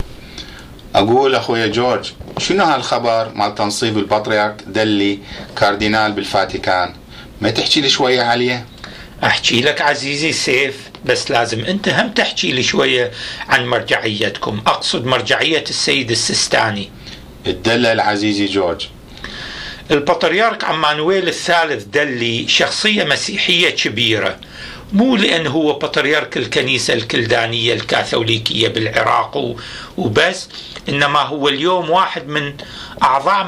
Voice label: Dialogue, Iraqi Dialect; 2 religious figures